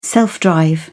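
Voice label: "self-drive", British English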